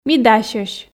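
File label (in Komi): "ми дасьӧсь", Komi, female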